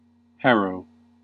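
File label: "harrow", US English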